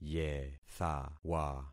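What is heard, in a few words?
"yethawa", concatenated syllables; Affixing experiment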